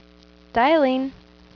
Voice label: Dialing (Female)